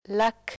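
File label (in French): "lac", French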